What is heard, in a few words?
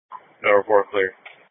Police Patrol, Dispatch